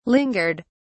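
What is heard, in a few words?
"lingered", British English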